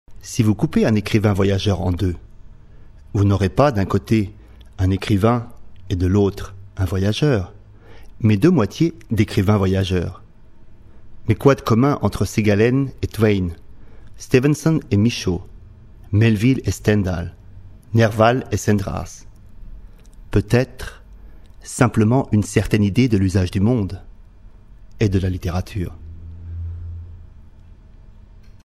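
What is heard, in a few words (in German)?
französisch, Telefonansagen männlich; Sprecher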